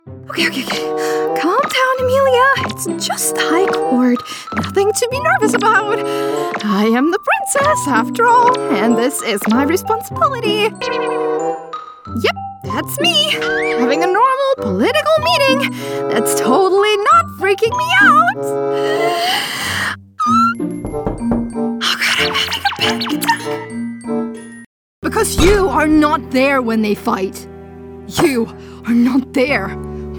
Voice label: female German and Polish multiple accents animation and character compilation